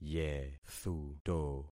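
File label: "yethuto", concatenated syllables; Affixing experiment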